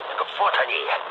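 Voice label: Russian enemy detected mood low, speech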